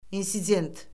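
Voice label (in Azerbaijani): "insident", Azerbaijani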